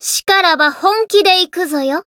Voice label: chant jp